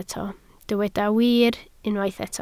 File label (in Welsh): "wir", Welsh